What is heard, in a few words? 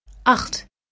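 "acht", Dutch, female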